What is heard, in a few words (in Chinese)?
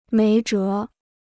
"没辙", Chinese